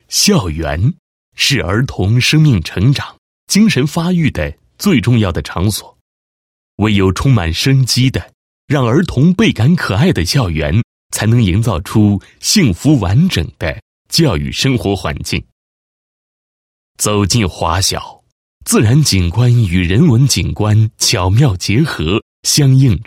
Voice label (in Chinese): 男 学校; 实验小学实施素质教育巡礼; 华城实验小学